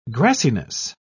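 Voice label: "grassiness", British English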